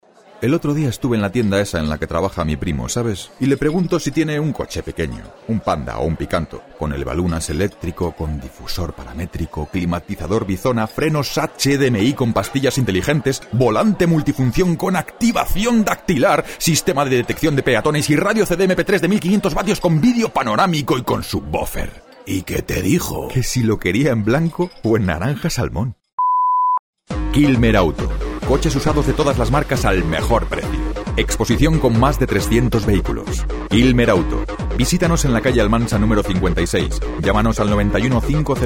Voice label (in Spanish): comercial